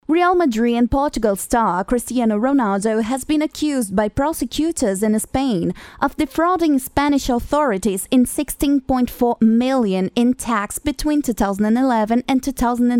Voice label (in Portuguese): Registro Inglês